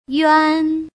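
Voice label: "yuan", Chinese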